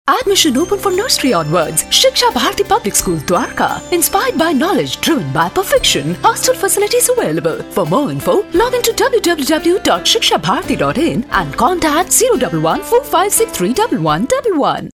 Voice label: radio Spot; Shiksha Bharti